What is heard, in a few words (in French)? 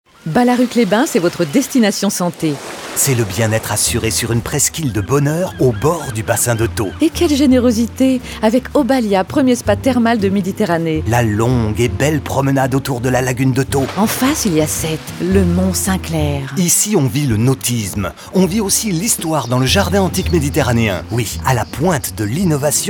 spot radio; balaruc; fb herault